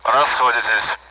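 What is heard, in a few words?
Speech, Russian, AWACS; cold; DCS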